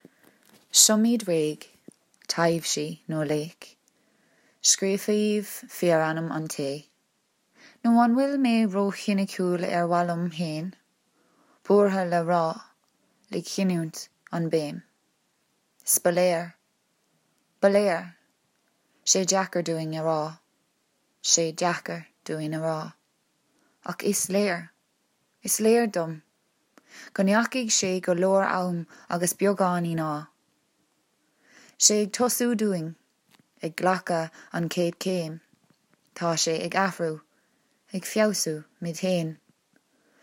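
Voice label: Irish, Narrative; GoLocalise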